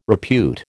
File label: "repute", American